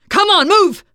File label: emphatic go, teen girl